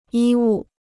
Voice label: "医务", Chinese